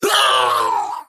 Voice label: pain death